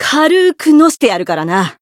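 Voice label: voice encount, Japanese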